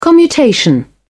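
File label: "commutation", British English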